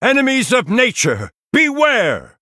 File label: Male Night Elf Attack